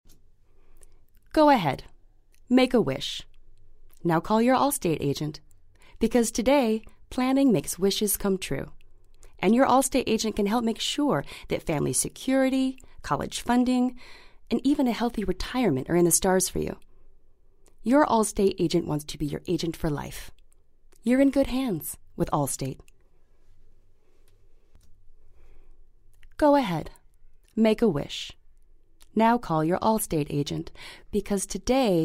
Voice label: Voiceover; Allstate